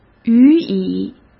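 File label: "予以", Mandarin Chinese; chinese flashcards; hsk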